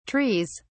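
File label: "trees", British English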